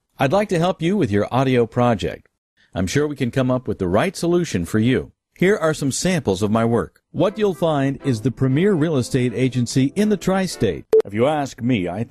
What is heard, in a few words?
gaming, VoiceOver